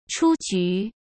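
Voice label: "出局", Mandarin Chinese; chinese flashcards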